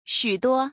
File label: "xuduo", Chinese